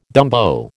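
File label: "dumbo", American English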